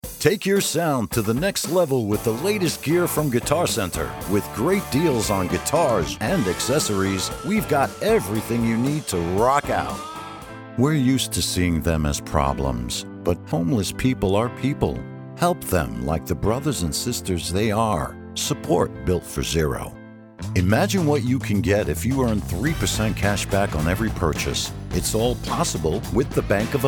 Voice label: Commercials